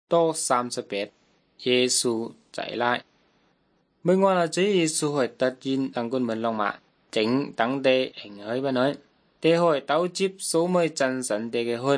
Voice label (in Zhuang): good news zhuang nanning fushu